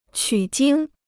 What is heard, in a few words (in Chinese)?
"取经", Chinese